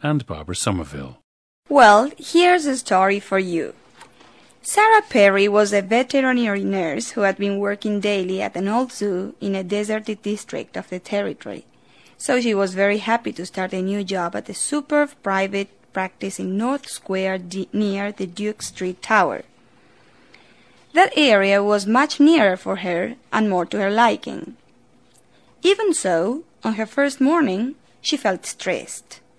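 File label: argentina, dialects of English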